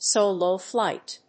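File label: "Solo Flight", English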